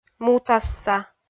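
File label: "мутасса", Komi, female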